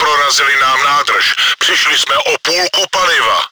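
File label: fuel tank damaged, чешской озвучки; Prorazili nám nádrž, přišli jsme o půlku paliva.; Czech; Нам пробили бак, мы потеряли половину топлива.; World of Tanks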